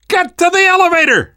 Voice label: world hospital, namvet, survivor voice

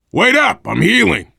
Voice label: cover me, voice, biker; Left 4 Dead 2; left4dead2 dlc3; survivor

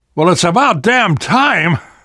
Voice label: safe spot ahead reaction, namvet, survivor voice

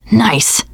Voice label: nice shot, teen girl; player; survivor; voice

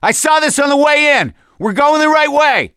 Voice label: misc directional, voice; gambler

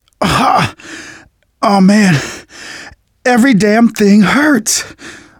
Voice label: going to die light, manager, survivor, voice; Left 4 Dead 2; left4dead2 dlc2

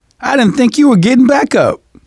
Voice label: revive friend, survivor voice manager

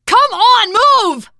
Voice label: emphatic go, teen girl

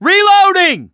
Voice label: reload intense, voice, mechanic

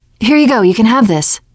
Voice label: alert give item, teen girl; player; survivor; voice

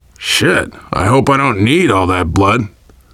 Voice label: getting revived, voice, biker; Left 4 Dead 2; left4dead2 dlc3; survivor

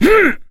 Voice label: melee swing, mechanic, survivor, voice

